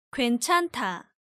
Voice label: "Gwaenchanda", Korean

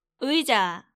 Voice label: "Uija", Korean

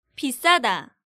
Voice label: "Bissada", Korean

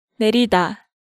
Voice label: "Naerida", Korean

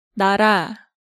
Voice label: "Nara", Hangeul sounds

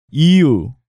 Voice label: "iyu", Korean

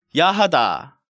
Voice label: "Yahada", Korean

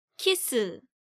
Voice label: "Kiss", Korean

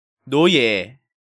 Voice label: "Noye", Korean

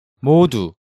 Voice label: "Modu", Hangeul sounds; DigitalSoju

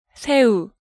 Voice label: "Saeu", Korean; Hangeul sounds

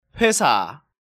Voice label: "Hoesa", Korean

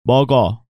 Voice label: "Meogeo", Korean

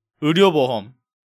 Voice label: "Uiryoboheom", Korean; DigitalSoju

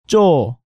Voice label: "jjo", Korean

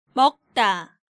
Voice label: "Meokda", Korean

